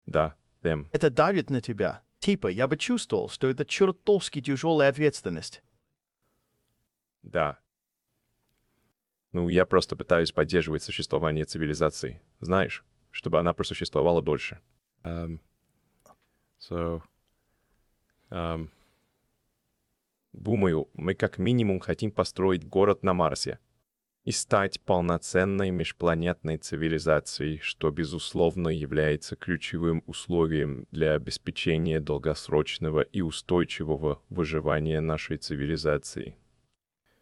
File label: russian; Subformer